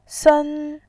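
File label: "sen", Mandarin Chinese, first tone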